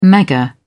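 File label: "mega", English, British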